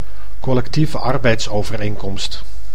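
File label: "Collectieve Arbeidsovereenkomst", Dutch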